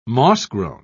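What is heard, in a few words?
"mossgrown", British English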